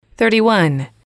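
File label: "thirty one", English, female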